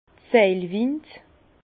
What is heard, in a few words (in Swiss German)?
"seilwind", Bas Rhin; vin; cabestan